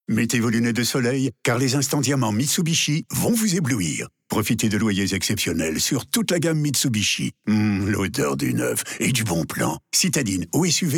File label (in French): SPOT RADIO, Spot Générique; MEDIAKEYS; MITSUBISHI